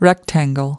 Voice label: "rectangle", us

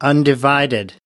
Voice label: "undivided", us

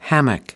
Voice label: "hammock", us

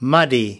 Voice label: "muddy", us

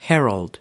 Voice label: "herald", us

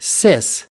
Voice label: "sis", us

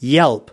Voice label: "yelp", us

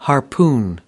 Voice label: "harpoon", us

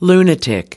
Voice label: "lunatic", us

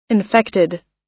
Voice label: "infected", us

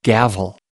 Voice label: "gavel", us

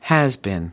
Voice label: "has been", US

